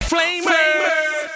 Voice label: FLAMERZZ, Hip-Hop Vocals Samples

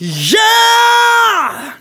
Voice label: Phrase Male; Vengeance Samples

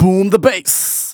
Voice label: Phrase Male Rap; Vengeance Samples

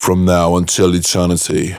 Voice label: Phrase Pitched; Vengeance Samples

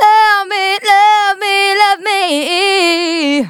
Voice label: Phrase Female; Vengeance Samples; Phrases

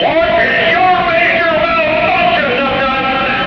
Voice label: LoFi Phrases, LoFi Vocals; Vengeance Samples

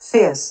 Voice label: LoFi Words, LoFi Vocals

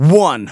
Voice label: Phrase Male Rap; Vengeance Samples

